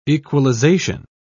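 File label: "equalisation", British English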